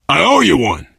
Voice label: to the rescue thanks, survivor voice biker